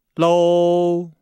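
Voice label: "lou", Chinese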